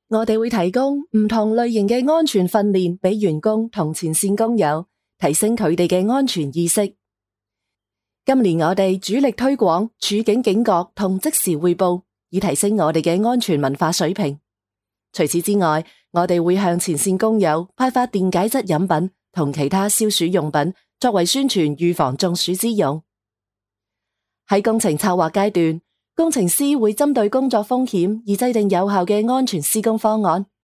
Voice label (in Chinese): 女粤 中文 女 方言丨宣传片 粤语工程安全宣传解说 自然丨年轻